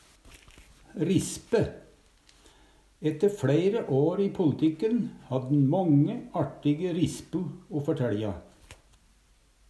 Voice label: rispe, Numedalsmål; Ette fLeire år i pol'tikke hadd'n månge artige rispu o førteLja

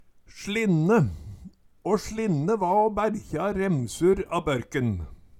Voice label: "slinne", Numedalsmål; Eksempel på bruk Å slinne va å bærkje a remsur a børken

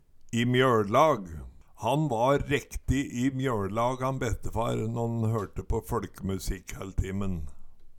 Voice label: "i mjøLlag", Numedalsmål; Han va rekti i mjøLlag han bestefar nån hørte på føLkemusikkhælltimen

